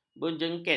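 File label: "berjengket", Malay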